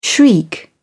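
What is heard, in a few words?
"shriek", British English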